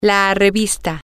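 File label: female, spanish; the Magazine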